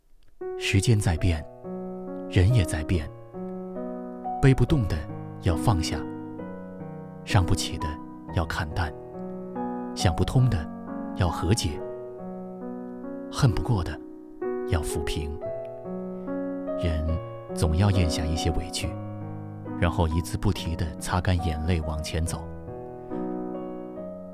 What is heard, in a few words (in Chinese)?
情感类独白